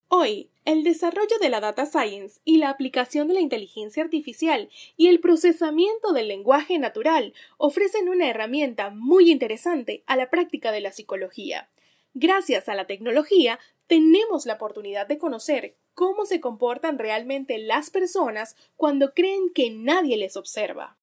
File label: locutora venezuela voiceover; locutora de Venezuela